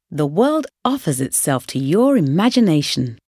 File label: Inspirational Enthused about the World